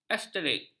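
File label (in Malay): "asterisk", Malay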